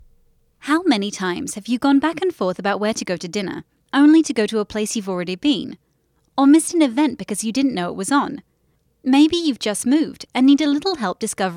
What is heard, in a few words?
British Explainer